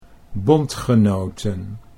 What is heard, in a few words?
"bondgenoten", Dutch